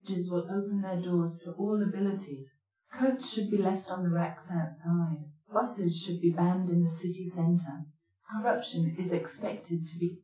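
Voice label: female speech; SiSEC10; underdetermined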